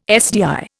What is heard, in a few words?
"SDI", Indian